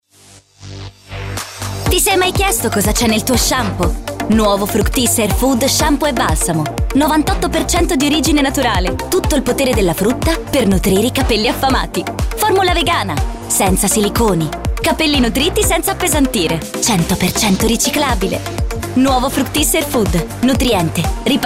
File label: spot